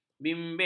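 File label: "bimbing", Malay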